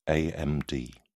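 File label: "amd", English, British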